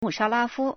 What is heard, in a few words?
"mu4sha1la1fu1", Chinese